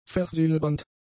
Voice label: "versilbernd", German; adjectives

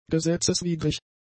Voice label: "gesetzeswidrig", German; adjectives

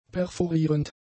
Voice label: "perforierend", German; adjectives

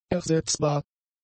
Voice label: "ersetzbar", German; adjectives

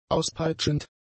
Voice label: "auspeitschend", German; adjectives

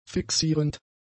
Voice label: "fixierend", German; adjectives

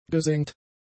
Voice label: "gesengt", German; adjectives

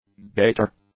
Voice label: "gaiter", English; nouns

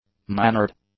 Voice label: "mannered", English; adjectives

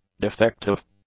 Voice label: "defective", english; adjectives

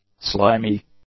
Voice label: "slimy", English; adjectives

